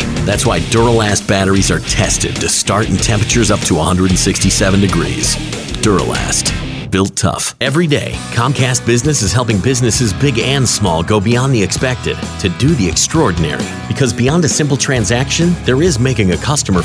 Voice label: Comm General WITH A LIL STEREO